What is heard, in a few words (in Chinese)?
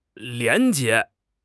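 "廉洁", Chinese; HSK6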